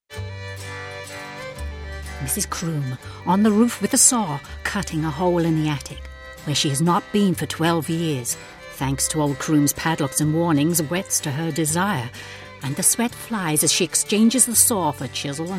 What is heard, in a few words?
Voiceover